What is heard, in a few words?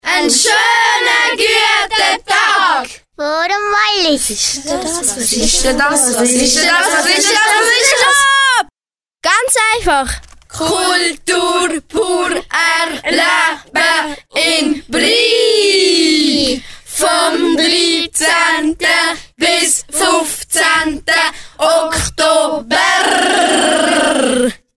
radiospot; 2006 FORUM : : WALLIS; RADIOSPOT MIT SCHÜLERN DER EV. SCHULE BRIG